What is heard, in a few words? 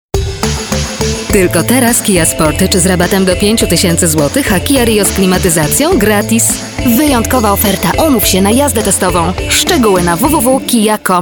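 voice female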